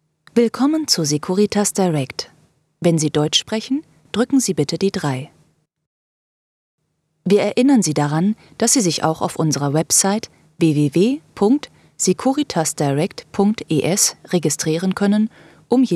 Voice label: on hold german insurance